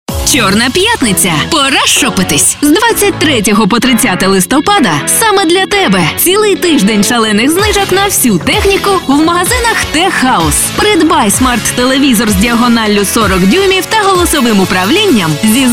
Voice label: Ukrainian Voice Over